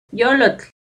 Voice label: "yollotl", Náhuatl; corazón; INALI; UTexas; NAHUATLAHTOLLI

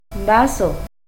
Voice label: "vazoh", Náhuatl; vaso; INALI; UTexas; NAHUATLAHTOLLI

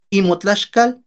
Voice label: "inmotlaxkal", Náhuatl; su tortilla; your tortilla

